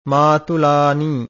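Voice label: "maatulaanii", Pali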